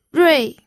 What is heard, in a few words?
"rui4", pinyin